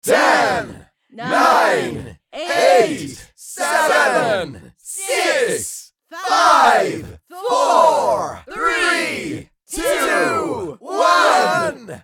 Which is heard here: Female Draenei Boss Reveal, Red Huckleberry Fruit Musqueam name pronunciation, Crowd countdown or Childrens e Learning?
Crowd countdown